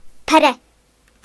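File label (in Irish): "pear", Irish; gcompris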